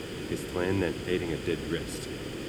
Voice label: his plan elliptical noise